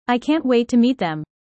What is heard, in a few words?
user fast; Animal friends